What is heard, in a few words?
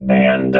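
"banned", robot voice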